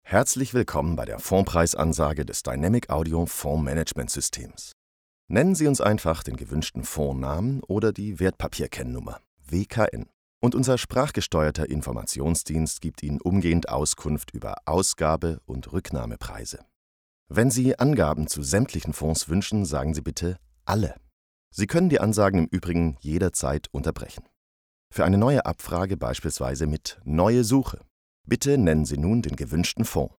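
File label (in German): telefon, deutsch männer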